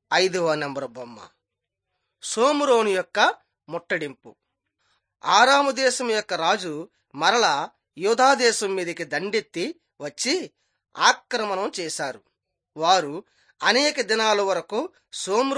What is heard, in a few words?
telugu bangladesh; on trial for god